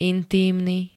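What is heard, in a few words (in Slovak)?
"intímny", Slovak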